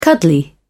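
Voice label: "cuddly", British English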